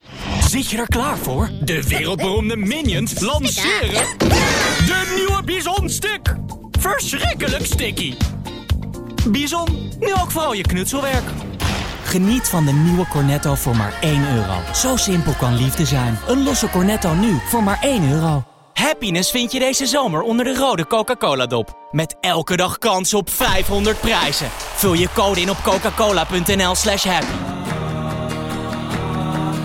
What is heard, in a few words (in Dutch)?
algemeen, voice over